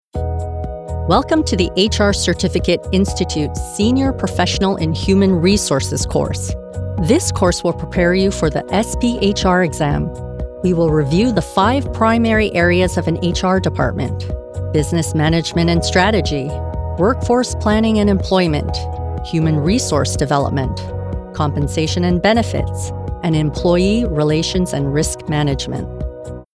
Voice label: E-LEARNING; HR CERTIFICATE